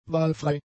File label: "wahlfrei", German; adjectives